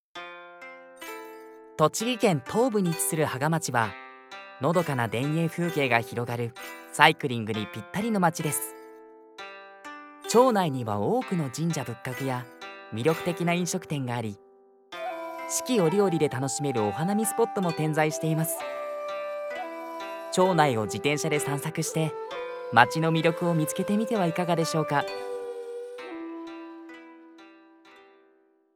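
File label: voice sample, narration for local government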